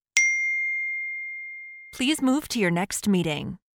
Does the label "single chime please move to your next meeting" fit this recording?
Yes